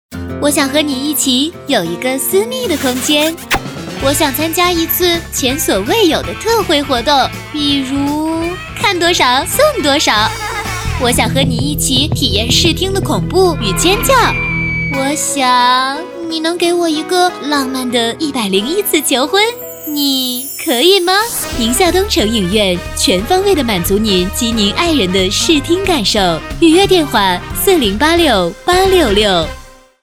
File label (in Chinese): 广告 促销 影院 甜美